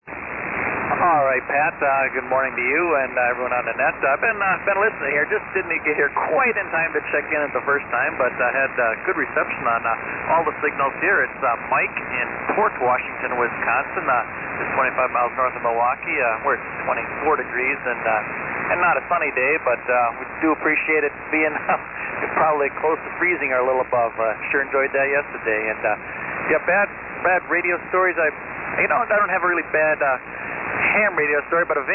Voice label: Hallicrafters HT-37 Hammarlund HQ-180 Shure 444 Mic; Port Washington,Wi.